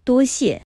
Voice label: "多谢", Mandarin